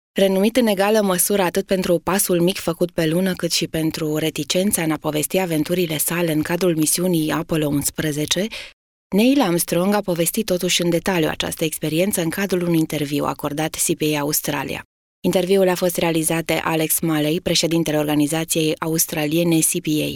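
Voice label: Romanian, female, narration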